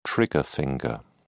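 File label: "trigger finger", British English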